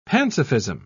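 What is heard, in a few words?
"pansophism", British English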